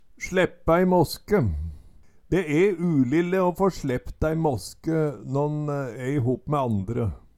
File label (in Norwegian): "sleppe aei maske", Numedalsmål; sleppe æi måske; Eksempel på bruk De e ulille å få slept æi måske nån e ihop me andre